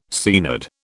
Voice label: "Seanad", British

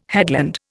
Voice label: "headland", Indian

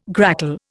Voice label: "grackle", Indian

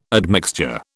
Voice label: "admixture", British

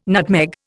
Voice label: "nutmeg", Indian

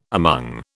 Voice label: "among", British

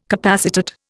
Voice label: "capacitate", Indian

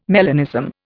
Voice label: "melanism", Indian

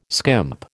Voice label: "skimp", American

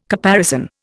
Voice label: "caparison", Indian

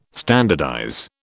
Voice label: "standardize", British

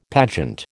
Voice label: "pageant", American

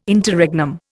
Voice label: "Interregnum", Indian

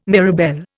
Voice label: "mirabelle", Indian

